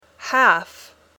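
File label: "half", English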